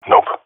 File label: negative, jungle male epic; player vo; Counter Strike Model Pack; CS:GO